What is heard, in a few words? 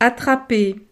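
"attraper", French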